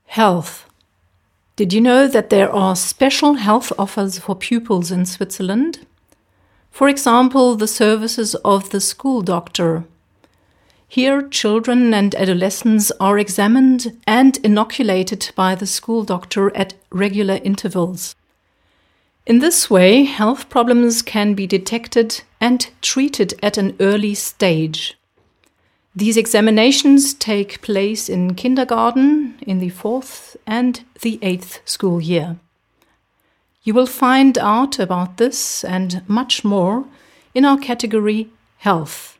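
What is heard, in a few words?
English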